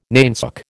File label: "nainsook", American